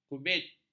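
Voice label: "kubit", Malay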